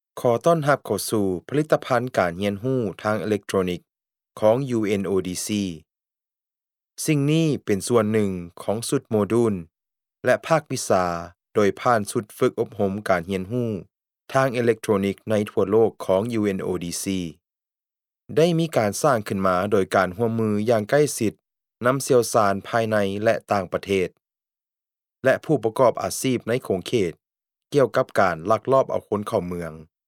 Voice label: Lao